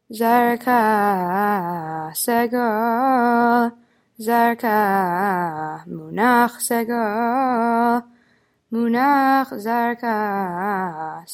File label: polish lithuanian torah סגול